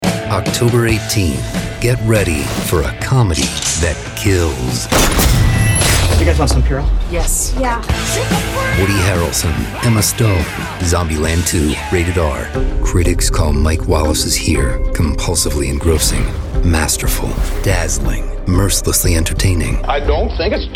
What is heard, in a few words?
Trailers